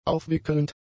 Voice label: "aufwickelnd", German; adjectives

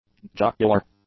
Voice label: "jocular", english; adjectives

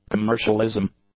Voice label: "commercialism", English

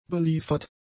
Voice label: "beliefert", German; adjectives

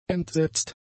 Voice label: "entsetzt", German; adjectives

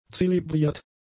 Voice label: "zelebriert", German; adjectives

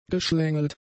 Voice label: "geschlängelt", German; adjectives

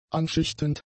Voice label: "anschichtend", German; adjectives

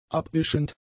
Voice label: "abwischend", german; adjectives

